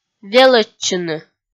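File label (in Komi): "велӧдчыны", Komi, female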